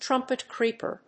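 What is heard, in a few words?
"trumpet creeper", English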